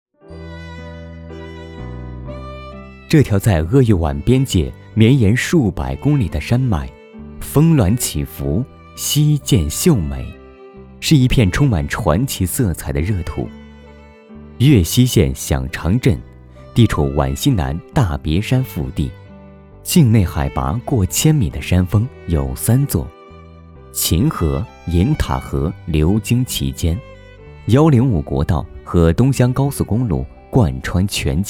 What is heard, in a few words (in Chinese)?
纪录片 娓娓道来